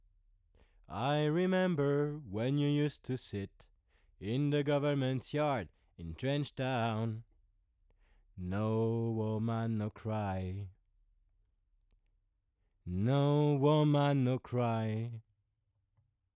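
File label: voice c414 preamp; rupert neve; portico; voix; sans silk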